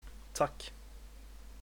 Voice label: thanks, swedish

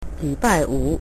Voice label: friday, mandarin

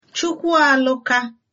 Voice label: congratulations, igbo

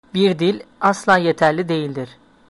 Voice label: one language, turkish

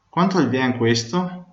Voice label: "how much", Venetian

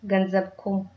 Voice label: you're welcome, Tigrinya

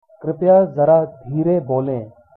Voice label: slowly, hindi

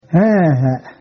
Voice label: "yes", Cheyenne

